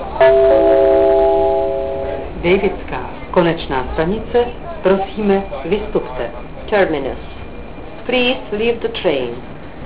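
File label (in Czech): příjezd, Vlaková hlášení, Pražské metro; Dejvická, konečná stanice. Prosíme, vystupte. Terminus. Please leave the train.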